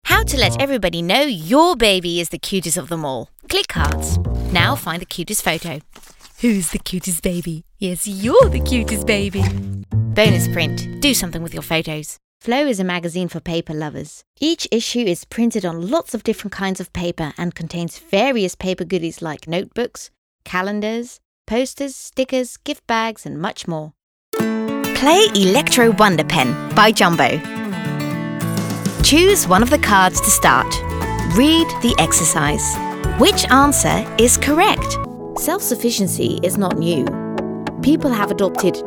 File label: UK voicedemo